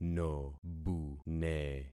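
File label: "nobune", concatenated syllables; Affixing experiment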